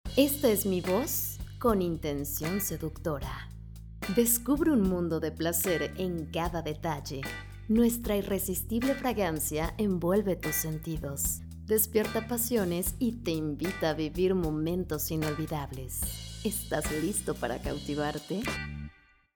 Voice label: seductora; locutora

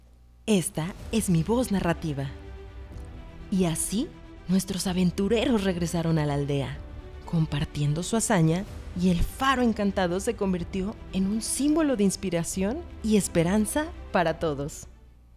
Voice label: Documental; locutora

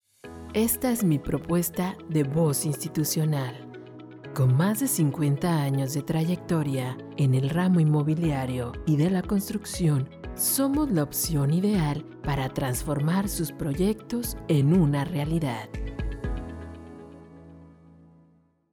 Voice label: Institucional

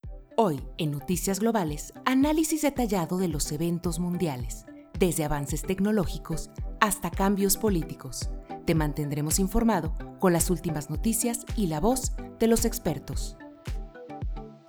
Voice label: Informativa musicalizado, locutora

